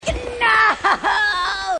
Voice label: noooo, grunts